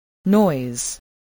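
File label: "noise", English word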